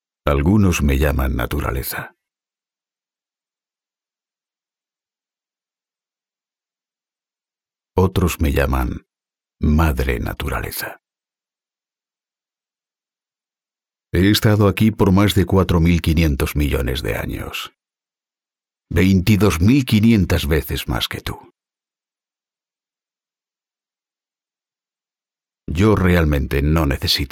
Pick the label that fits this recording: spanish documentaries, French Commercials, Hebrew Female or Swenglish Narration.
spanish documentaries